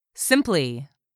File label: "simply", english; 3000 words